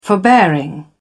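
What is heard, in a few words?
"forbearing", en, uk British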